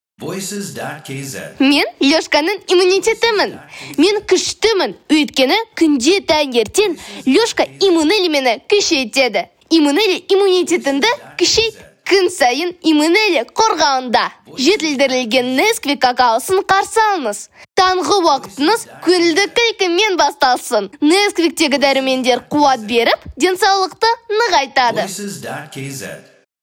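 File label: kid Kazakh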